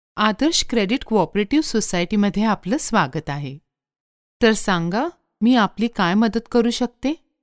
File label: MARATHI FEMALE